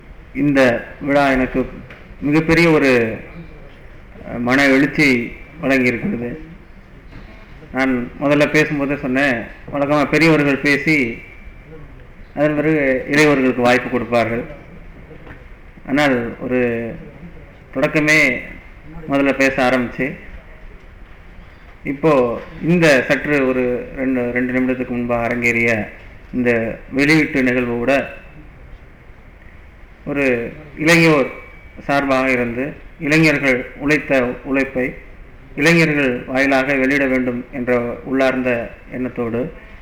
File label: tamil talk; firefox